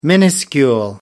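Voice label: "minuscule", British English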